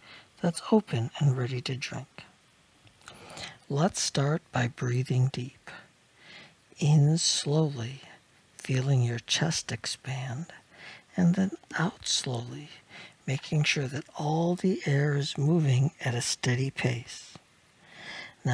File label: Relaxing In the Rain Guided Meditation; S1Ep9: Relaxing In The Rain